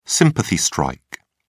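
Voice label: "sympathy strike", English, British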